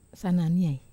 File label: "sananiai", Wamesa